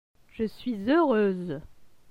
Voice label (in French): "je suis heureuse", francais